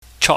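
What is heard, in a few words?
"chok", Cantonese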